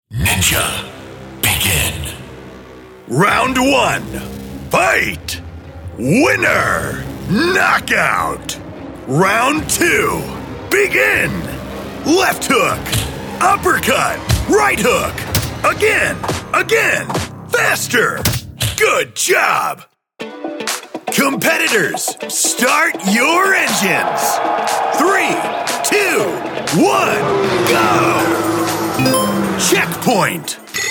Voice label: video game voices

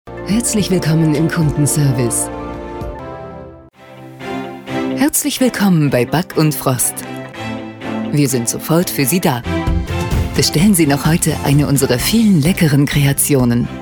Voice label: DE Female voicemail IVR; German

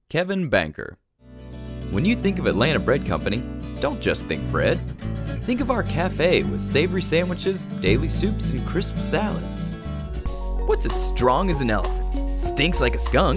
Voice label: Commercial Voice Over

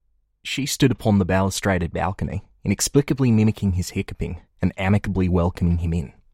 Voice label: Australian Younger

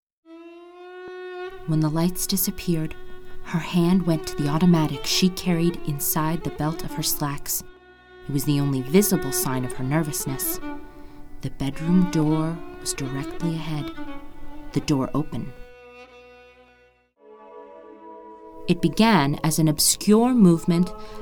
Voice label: VO Narration

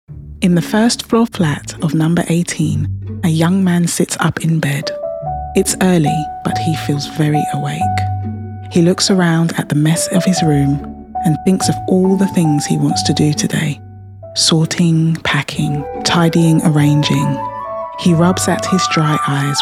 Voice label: Audiobook Read

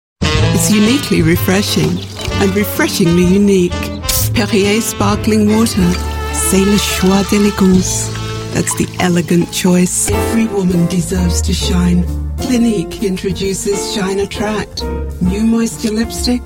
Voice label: BRITISH commercials

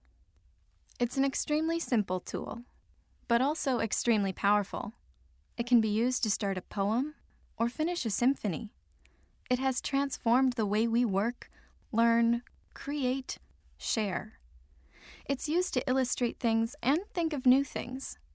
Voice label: Commercial Sample

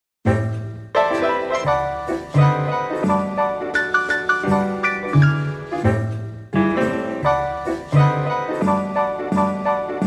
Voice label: Announcement

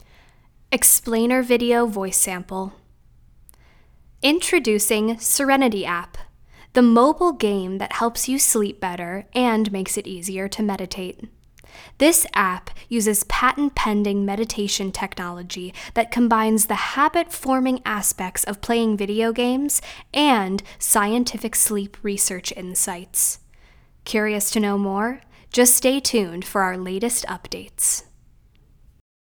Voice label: Explainer Video Sample VO

